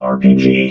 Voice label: "RPG", robot vox